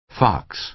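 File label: "fox", American English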